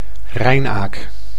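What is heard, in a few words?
"rijnaak", Dutch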